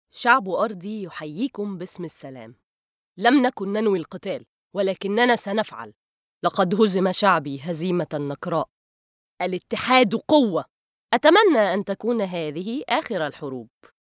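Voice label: arabic female narration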